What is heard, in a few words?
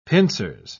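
"pincers", British English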